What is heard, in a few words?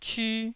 "cyu1", Taiwanese syllable